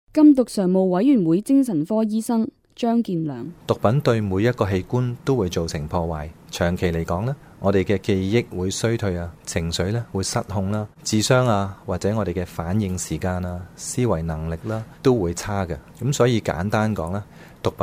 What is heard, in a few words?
cantonese; 向毒品说不(听医生话)(二)